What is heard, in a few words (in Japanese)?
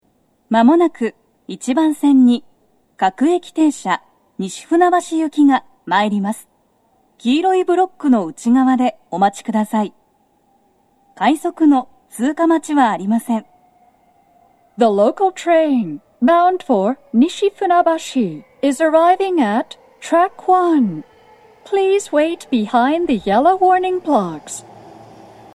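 接近放送, 女声, 放送は「各駅停車 西船橋行き」です。快速 の通過待ちはありませんTOA円型での収録です。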